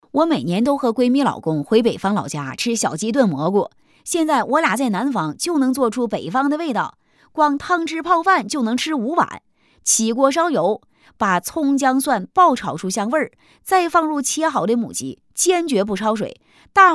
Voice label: 东北方言 信息流

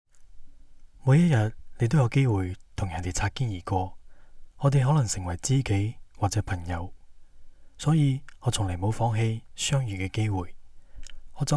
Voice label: 公益片文案配音; 王家卫风

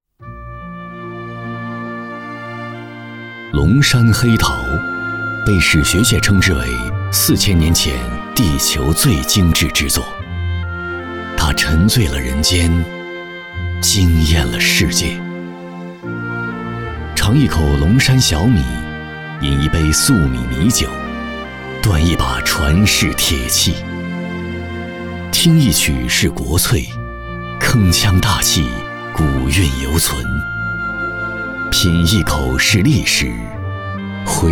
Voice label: 纪录沉稳讲述; 共襄艺术盛典焕活文化底蕴

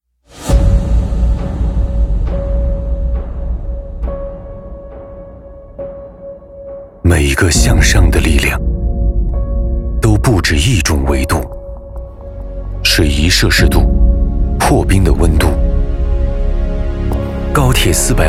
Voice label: 专题大气党建; 无界

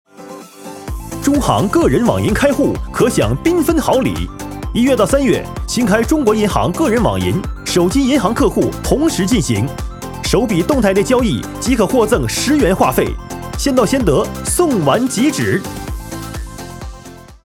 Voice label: 促销广告

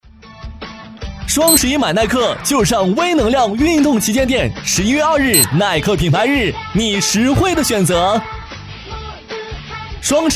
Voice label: 促销; 耐克

